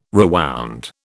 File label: "rewound", British